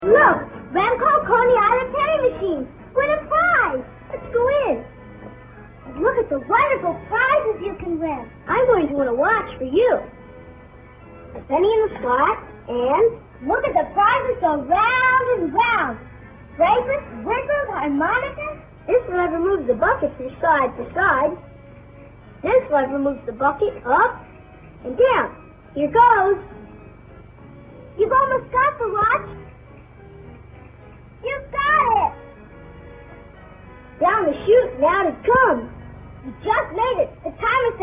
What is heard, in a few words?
Vintage Commercials; Coney Island Penny Machine